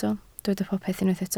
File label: "popeth", Welsh